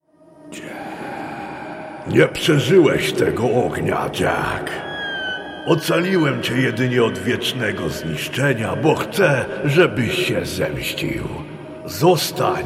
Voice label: polish video games